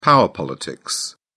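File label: "power politics", English, British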